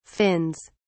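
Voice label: "fins", British English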